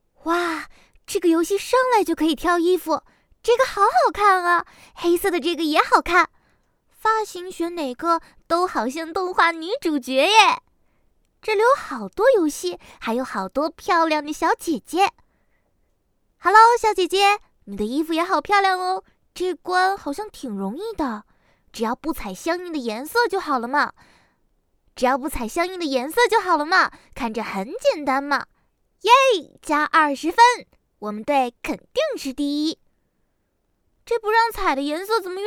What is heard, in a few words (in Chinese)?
游戏试音女